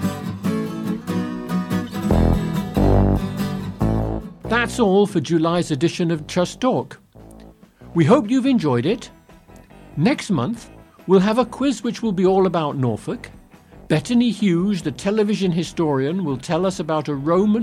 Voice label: Closing Announcement